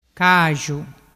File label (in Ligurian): "caxu", dialettu